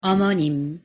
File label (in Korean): "어머님" Korean; pronunciation